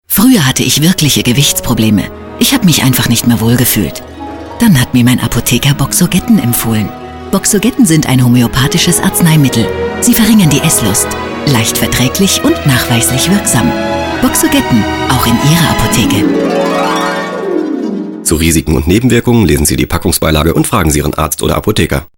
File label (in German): Gesundheitswesen Pharma Radiowerbung